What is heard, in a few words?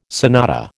"sonata", American